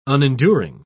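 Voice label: "unenduring", British English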